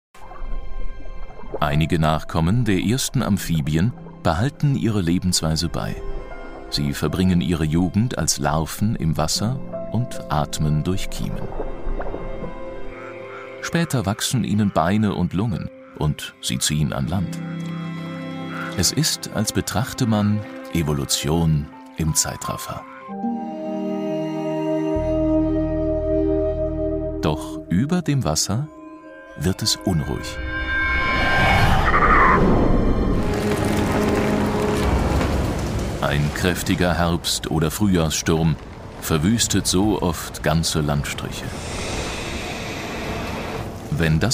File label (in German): Doku Natur